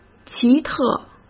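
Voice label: "奇特", Mandarin Chinese; hsk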